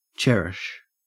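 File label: "cherish", Australian English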